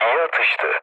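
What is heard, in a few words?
Turkish player killed enemy, mood low, speech; War Thunder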